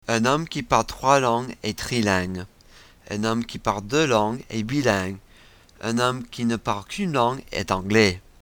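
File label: proverb, French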